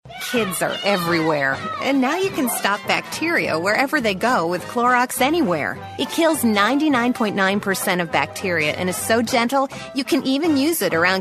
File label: Commercial Mom